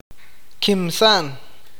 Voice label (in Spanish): "matar", awakateko